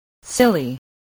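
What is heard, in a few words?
"silly", spoken word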